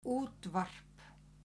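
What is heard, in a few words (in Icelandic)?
"útvarp", Icelandic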